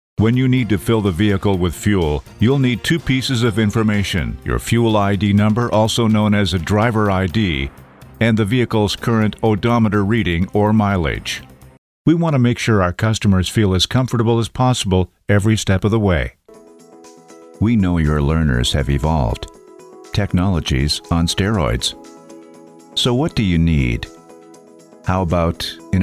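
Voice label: e learning, voiceover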